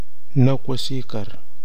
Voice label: "naukwasikar", Nafe (Kwamera); daylight, sunshine

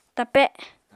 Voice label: "tape", apatani

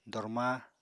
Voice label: "dormaa", Apatani

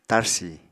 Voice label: "tarsi", apatani

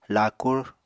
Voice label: "laakur", Apatani

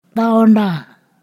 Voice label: "which", Ponosakan

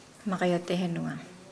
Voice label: "flu", Nukuoro

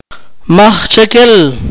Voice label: "who", Akateko

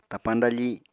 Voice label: "tapañ dafi", apatani

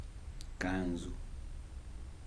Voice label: "dress", Kibembe

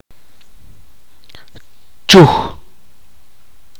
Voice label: "quemar", itza

